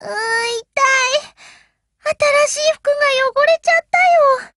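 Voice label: BREAK Japanese; GF Alarm; UMP9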